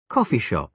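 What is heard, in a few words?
"coffee shop", British English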